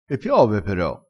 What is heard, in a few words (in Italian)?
"pero", crespinese